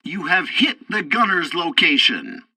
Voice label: tutorial tank arcade first target gunner hit, english; War Thunder